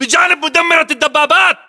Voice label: landmark near tank, localized english battlechatter